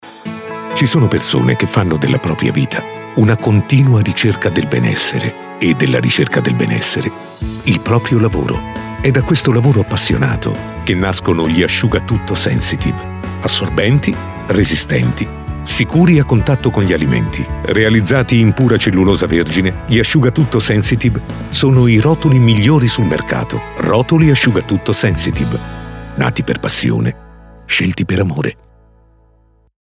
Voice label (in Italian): PACATO; Sensitive-Rotocart-Asciugatutto